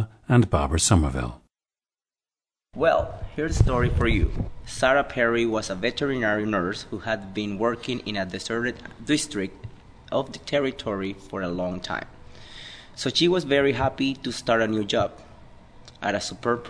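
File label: panama, International Dialects of English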